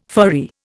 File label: "furry", Indian